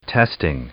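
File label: "testing", English; noun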